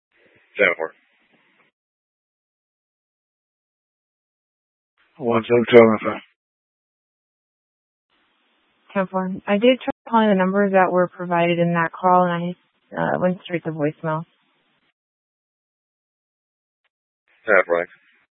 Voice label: Dispatch, scanner